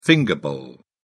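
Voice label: "finger bowl", British English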